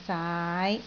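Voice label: "saai5", Cantonese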